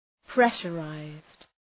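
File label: "pressurized", English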